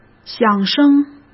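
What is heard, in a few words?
"响声", Mandarin Chinese; chinese-flashcards; hsk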